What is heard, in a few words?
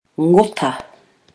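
"ngota", Māori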